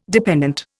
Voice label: "dependant", Indian

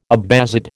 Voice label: "Abbasid", American

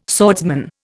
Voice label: "swordsman", Indian

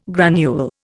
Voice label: "granule", Indian

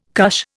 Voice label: "cush", Indian

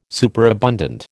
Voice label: "superabundant", American

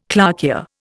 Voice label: "clarkia", Indian

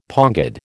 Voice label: "pongid", American

